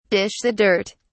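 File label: "dish the dirt", British English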